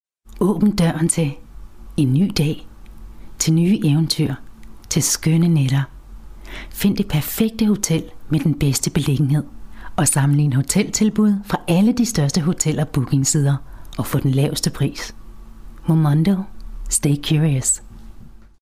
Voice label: (MOT) Danu balsas, moteris

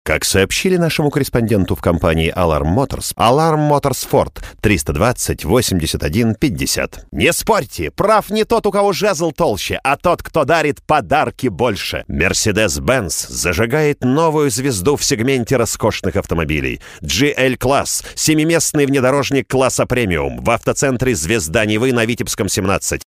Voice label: Rusiškas balsas, vyras

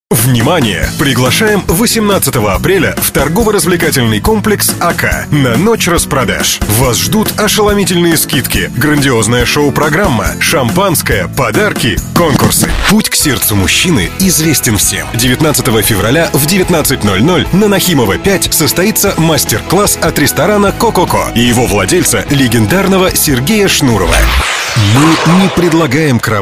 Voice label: Rusiškas balsas, vyras

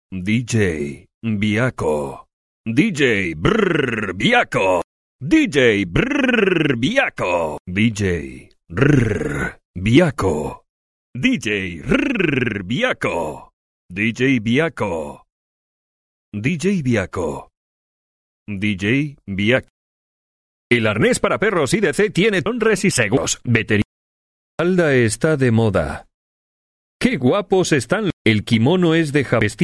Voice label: Ispanu balsas, vyras

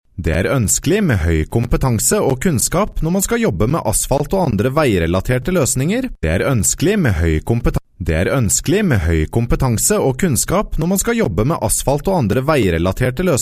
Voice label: (VYR) Norvegu balsas; vyras

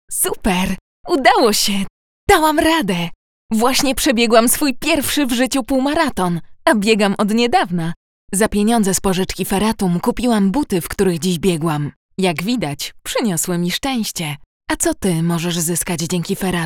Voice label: MOT Lenku balsas, moteris

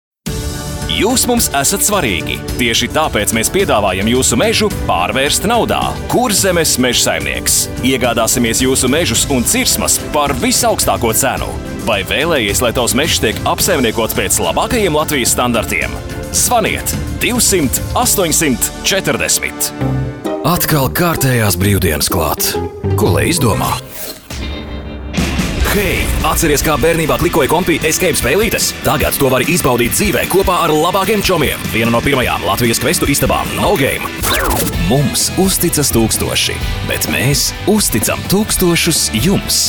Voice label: latviu, vyras

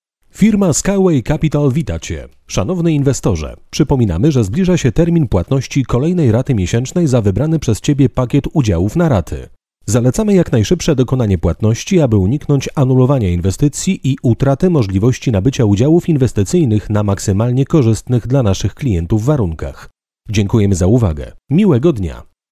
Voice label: VYR Lenku balsas, vyras

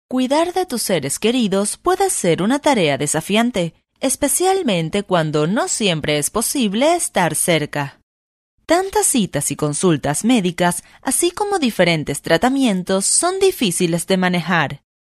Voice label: (MOT) Ispanu balsas

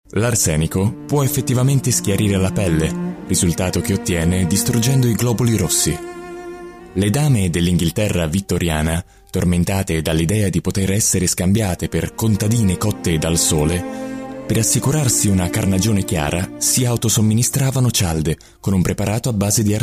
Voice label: VYR Italu balsas, vyras

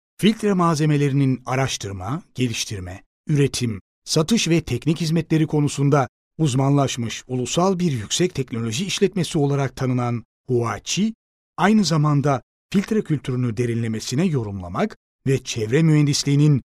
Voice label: VYR Turku balsas, vyras

